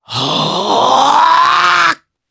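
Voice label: Vox Casting, Japanese; voices; heroes; Oddy; kingsraid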